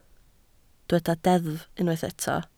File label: "deddf", Welsh